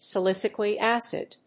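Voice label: "salicylic acid", English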